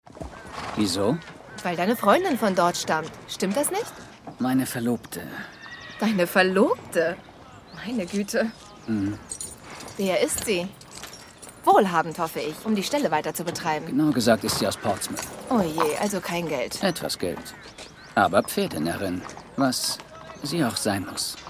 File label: male german audioplay; ideasonora